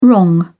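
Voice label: "wrong", English, British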